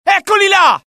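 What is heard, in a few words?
alert, Italian; mohaa; dialogue; sighted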